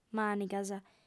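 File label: "mànigas" Sardinian, female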